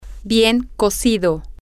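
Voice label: well done, Spanish, female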